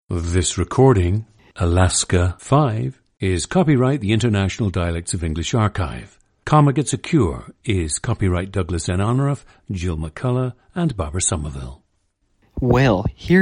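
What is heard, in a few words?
alaska, International Dialects of English; Alaska 5; a 20-year-old man from Wasilla, Alaska, United States.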